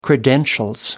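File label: "credentials", British English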